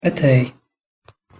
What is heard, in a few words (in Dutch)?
"atay", Kabylisch; thee-in-andere-talen